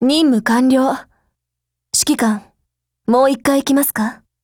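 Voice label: operation over, Japanese; T-5000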